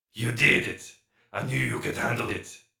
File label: Radio Play Short; Warframe; Alerts; Darvo Rescue; en